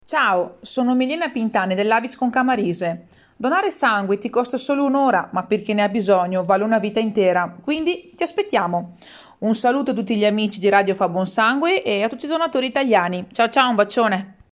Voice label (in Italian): promo